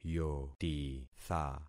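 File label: "yotitha", concatenated syllables; Affixing experiment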